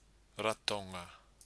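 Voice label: "ratonga", Māori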